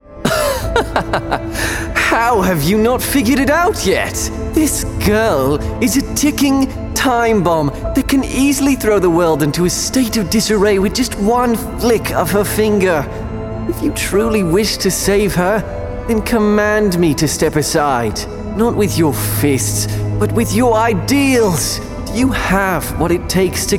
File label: Accent